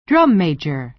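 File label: "drum major", American English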